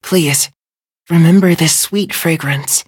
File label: level up, en; cookie run